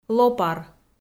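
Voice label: "лопар", Komi, female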